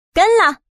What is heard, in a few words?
Call, woman; goldenflower